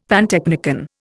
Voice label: "pantechnicon", Indian; Dictionary